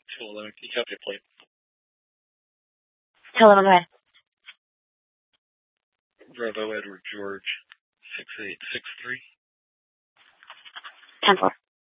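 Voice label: police dispatch, Scottsdale PD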